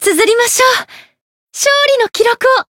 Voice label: Voice win jp

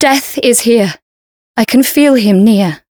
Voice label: Voice encount fear; toova

